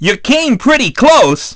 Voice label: dj goal; DJ VOICE; Nintendo; July 2020 Gigaleak